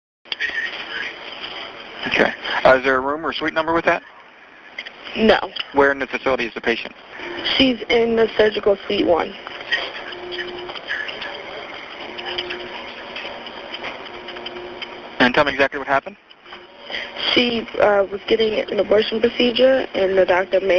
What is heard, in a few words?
911 Call; Wilmington